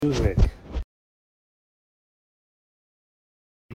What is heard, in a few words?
ASMR woo doggy